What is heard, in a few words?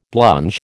"blunge", American